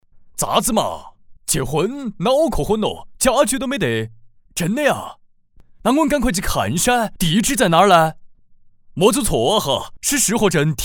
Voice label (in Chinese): 四川话